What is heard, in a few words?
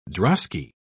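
"drosky", British English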